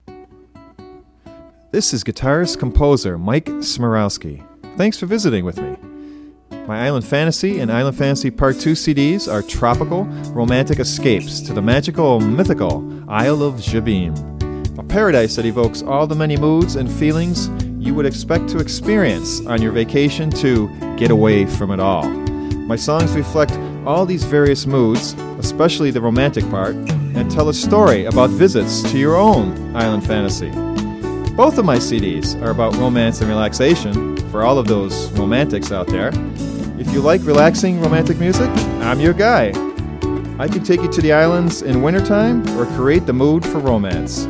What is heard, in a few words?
Voiceovers